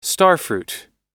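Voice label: "starfruit", US English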